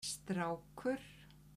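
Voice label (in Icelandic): "strákur", Icelandic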